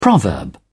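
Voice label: "proverb", British English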